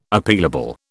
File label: "Appealable", British